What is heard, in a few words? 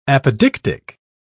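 "apodictic", British English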